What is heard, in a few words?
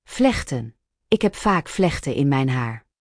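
"vlechten", Dutch; Spelling Gr4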